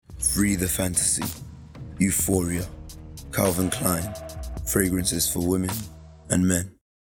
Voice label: Perfume commercial